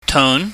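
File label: tone mid rising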